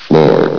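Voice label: "floor", English, station announcer voice; S.P.L.U.R.T-Station-13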